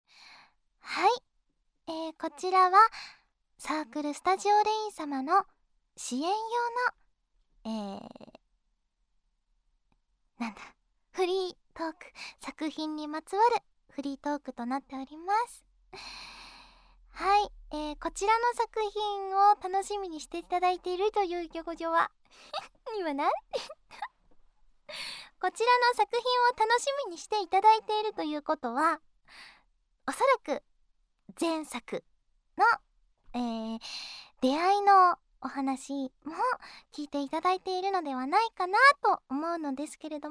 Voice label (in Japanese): フリートーク